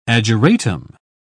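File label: "ageratum", British English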